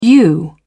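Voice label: "yew", British English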